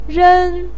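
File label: "ren", Mandarin Chinese syllable, first tone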